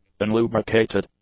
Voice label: "unlubricated", English; adjectives

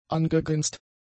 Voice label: "angegrinst", German; adjectives

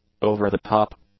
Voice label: "over-the-top", English; adjectives

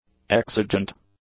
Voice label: "exigent", english; adjectives

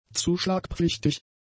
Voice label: "zuschlagpflichtig", German; adjectives

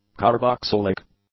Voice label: "carboxylic", English; adjectives

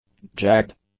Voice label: "jagged", english; adjectives

